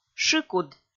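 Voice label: "шыкуд", Komi, female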